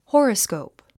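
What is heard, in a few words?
"horoscope", English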